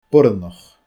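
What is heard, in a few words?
"boireannach", Scottish Gaelic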